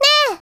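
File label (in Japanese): "ねえ", Japanese; Voice&Breath; Vocaloid 6.5.1; Otomachi; VOCALOID6 AI OtomachiUna Spicy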